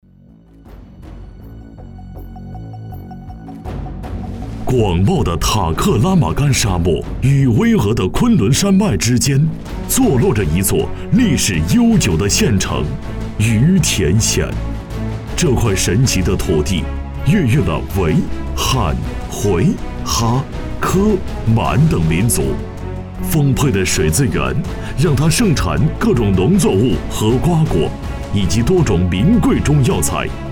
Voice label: 男 专题 农村饮水问题解决配音; 于田县

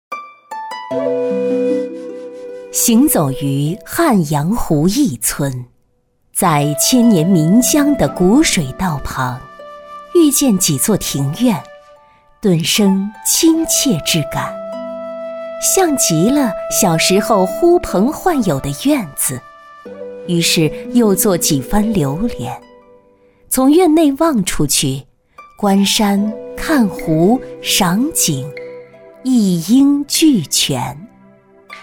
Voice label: 女 专题 古风优雅

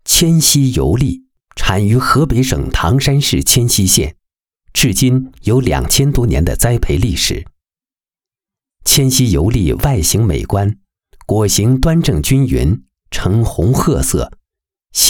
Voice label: 男, 迁西油栗

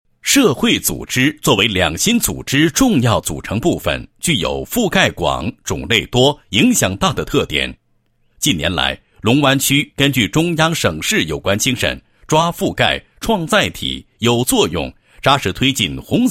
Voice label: 男 党建专题, 党建工作纪实; 龙湾区

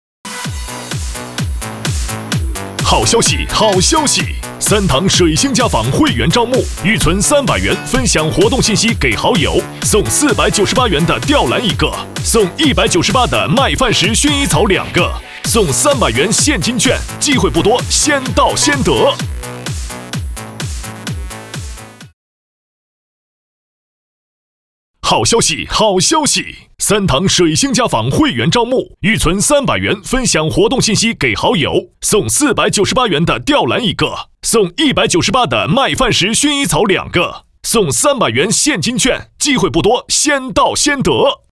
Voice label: 男 激情促销; 水星家纺

